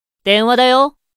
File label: Call Notification Voice; Asmodeus